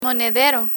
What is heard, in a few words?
"monedero", Spanish; learn spanish